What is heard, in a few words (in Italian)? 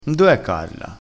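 "ganzo", vocabolario dialettale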